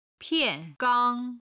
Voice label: "pian4gang1", chinese